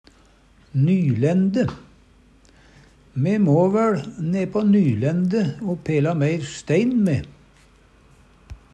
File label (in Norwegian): "nylende", Numedalsmål; Me må væL ne'på nylende' o pela meir stein, me?; Substantiv inkjekjønn